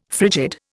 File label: "frigid", Indian